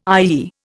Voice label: "IEE", Indian